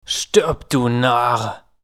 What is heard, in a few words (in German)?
Narr